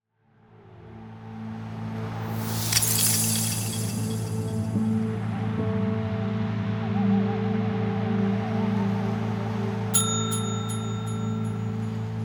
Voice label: ASMR meditation